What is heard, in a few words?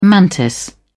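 "mantis", English, British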